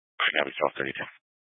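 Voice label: Troop 2A Dispatch, scanner audio recording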